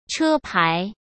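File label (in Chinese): "车牌", Mandarin Chinese; chinese flashcards; hsk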